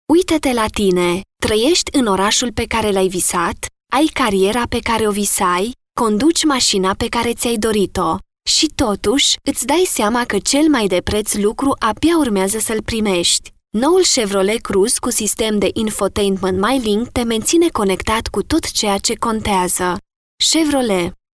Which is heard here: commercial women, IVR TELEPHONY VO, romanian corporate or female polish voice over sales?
romanian corporate